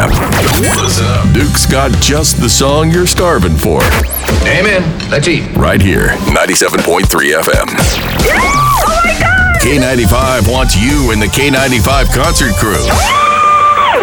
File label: Country Radio